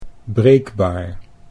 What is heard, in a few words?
"breekbaar", Dutch